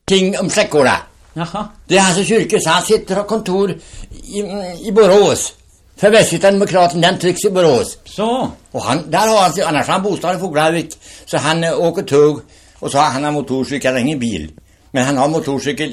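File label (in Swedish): Larv Västergötland, dialekter; Svenska sagor; Folkminnen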